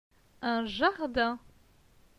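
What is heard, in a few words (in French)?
"Un jardin", French; phonetique; les consonnes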